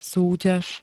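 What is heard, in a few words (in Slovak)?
"súťaž", Slovak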